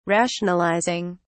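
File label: "rationalizing", British English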